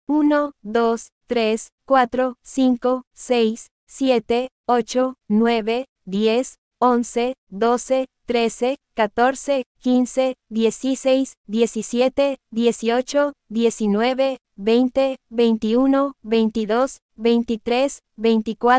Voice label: Spanish numbers 1 to 30 Pronunciation